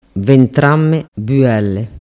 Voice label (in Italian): "ventrammebuelle", dialettu